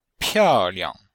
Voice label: "piao4 liang5", chinois; Cours-de-Chinois